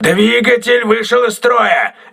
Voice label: engine damaged, crew ships russian